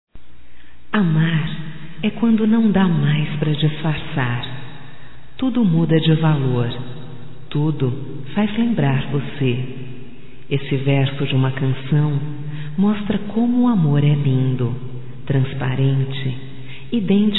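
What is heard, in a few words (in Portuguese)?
voz, Aniversário Romântico, female, Telemensagens; Telemensagens 2017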